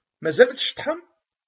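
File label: "Mazal d ceṭṭḥeḍ?", Kabyle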